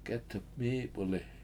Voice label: The Jar Is Full, washo; k7Etep mIIp71li